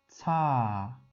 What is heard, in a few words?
"tsha", Wu Chinese; tsh是ts的送气音，就是英文的ts，“次”的声母就是它了; 吴语